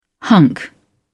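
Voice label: "hunk", English, British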